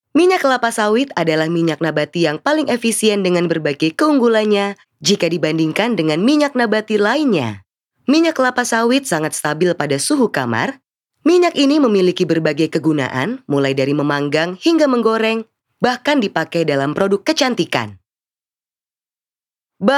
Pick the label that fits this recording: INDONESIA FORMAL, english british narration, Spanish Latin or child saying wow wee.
INDONESIA FORMAL